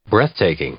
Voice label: "breathtaking", English; adjective